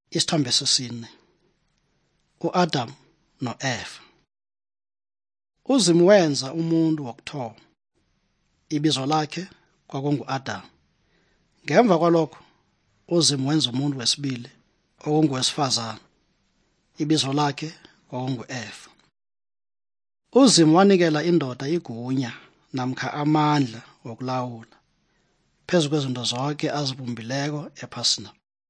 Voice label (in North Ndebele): good news ndebele southern, drama